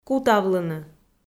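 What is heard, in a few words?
"кутавлыны", Komi, female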